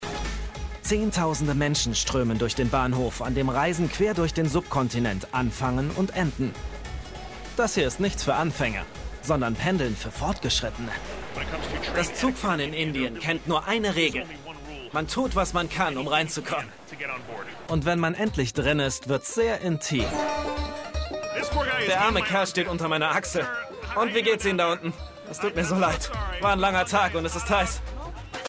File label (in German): Voiceover; Expedition Ins Unbekannte